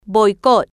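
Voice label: "boicot", Spanish, female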